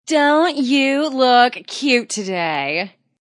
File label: Wmn Sys Dnt U Lk Ct Emph, Woman Says Don't You Look Cute Emphatic; Talking Sound Effects